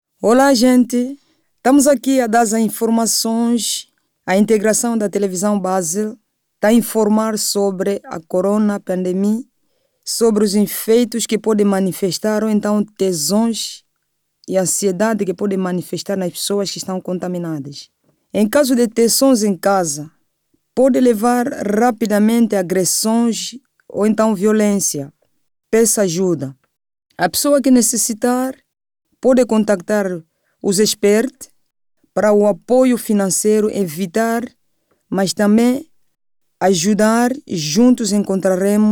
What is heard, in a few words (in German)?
Häusliche Gewalt Portugiesisch Vox; MHM Corona